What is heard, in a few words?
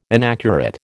"inaccurate", American